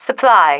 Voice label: "supply", female